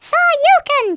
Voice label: "dragon punch", English speech

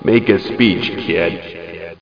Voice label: Make a speech; WARLOCK

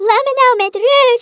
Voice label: "go away", Speech, Dutch; International; 11khz

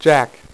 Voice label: "jack", dealer's speech; PowerPOKER Demo

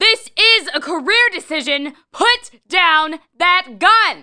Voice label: THREAT; DOMINA; Messiah; The Games Machine 76

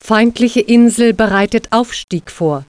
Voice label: enemy Island Preparing, german

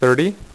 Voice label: "6", poker; iPoker 2000

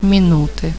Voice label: "Minute", speak

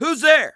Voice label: detect guard, English Voice

